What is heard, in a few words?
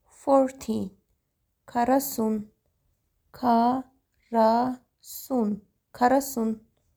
40 karasun, armenian